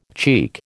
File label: "cheek", American